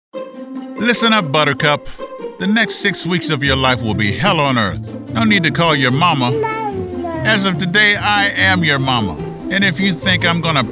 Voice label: E Learning